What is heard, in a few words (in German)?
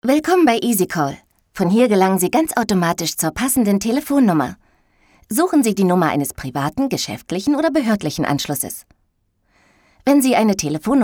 telefon, deutsch frauen; sprecher